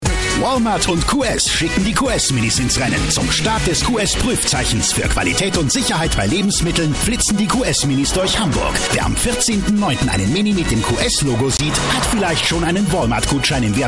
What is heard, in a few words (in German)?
Werbung Freundlich